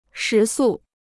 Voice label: "食宿", Chinese

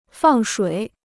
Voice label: "放水", Chinese

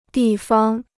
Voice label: "地方", Chinese

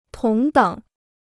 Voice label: "同等", Chinese

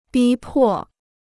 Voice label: "逼迫", Chinese

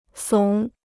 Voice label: "耸", Chinese

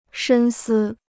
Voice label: "深思", Chinese

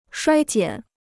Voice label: "衰减", Chinese

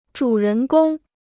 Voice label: "主人公", Chinese

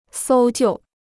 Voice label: "搜救", Chinese

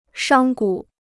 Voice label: "商贾", Chinese

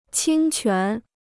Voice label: "侵权", Chinese

